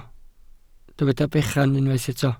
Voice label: "bechan", Welsh

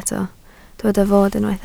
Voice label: "fod", Welsh

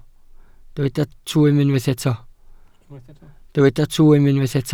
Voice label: "twym", Welsh

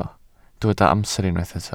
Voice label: "amser", Welsh

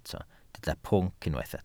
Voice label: "pwnc", Welsh

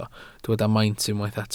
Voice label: "maint" Welsh

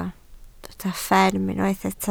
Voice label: "fferm", Welsh

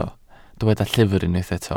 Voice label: "llyfr" Welsh

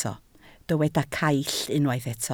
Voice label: "caill", Welsh

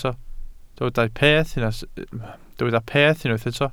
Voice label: "peth" Welsh

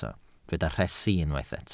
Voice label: "rhesi", Welsh

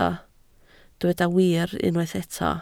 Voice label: "wir", Welsh

